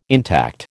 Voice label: "intact", American